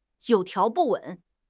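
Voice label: "有条不紊", Mandarin Chinese; HSK6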